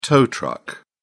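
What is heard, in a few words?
"tow truck", British English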